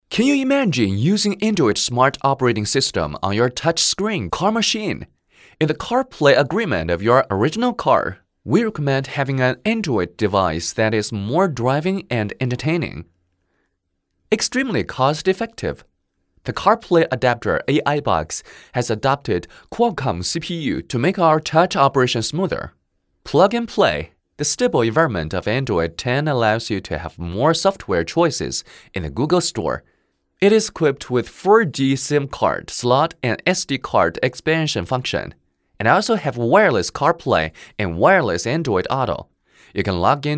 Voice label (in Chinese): 男 英文 活泼 广告